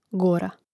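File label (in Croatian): "gora", hrvatski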